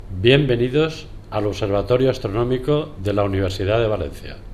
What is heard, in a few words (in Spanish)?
espanol